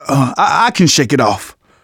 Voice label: getting revived, manager, survivor, voice; Left 4 Dead 2; left4dead2 dlc3